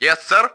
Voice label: yes sir, ru